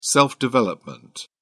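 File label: "self development", British English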